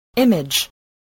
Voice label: "image", voice spelling